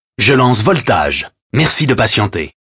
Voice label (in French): lancement radio